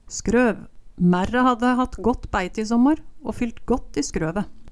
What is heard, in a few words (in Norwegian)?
skrøv, Numedalsmål